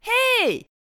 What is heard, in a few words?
bonjour, voix